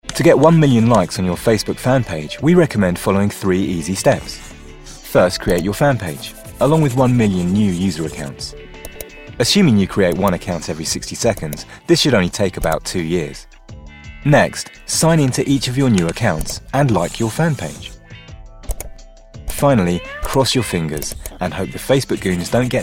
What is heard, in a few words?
Corporate